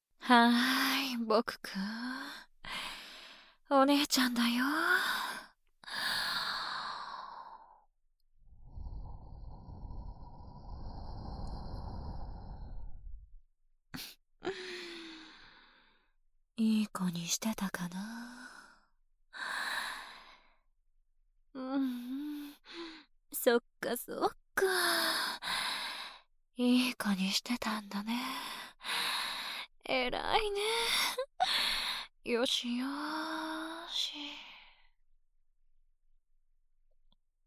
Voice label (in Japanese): ギャルの挑発生耳舐め, ASMR; 水音系SEなし